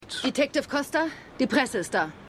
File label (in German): Polizistin; Jessica Jones